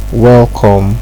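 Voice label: "welcome", Nigerian Pidgin, male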